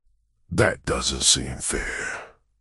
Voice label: upset; fertig classic games